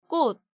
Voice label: "kkot", Korean

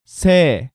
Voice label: "Sae", Korean

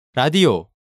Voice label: "Radio", Korean

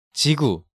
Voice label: "Jigu", Korean; Hangeul

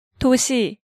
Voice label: "Dosi", Hangeul sounds

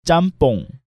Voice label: "jjamppong", Korean

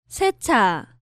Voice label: "Secha", Korean

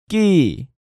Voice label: "kki", Korean

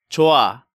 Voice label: "Joa", Korean

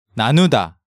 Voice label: "Nanuda", Hangeul sounds; DigitalSoju

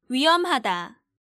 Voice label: "Wuiheomhada", Korean

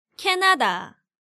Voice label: "Canada", Korean

